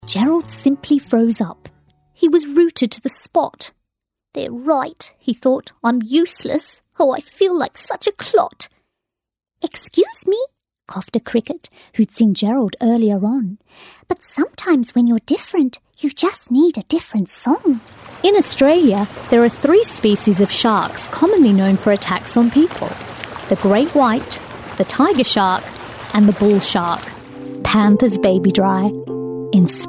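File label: Australian English, female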